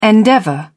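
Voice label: "endeavour", British English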